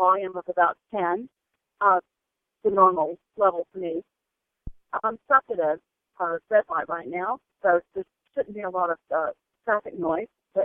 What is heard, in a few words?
plantronic k100 bluetooth car speaker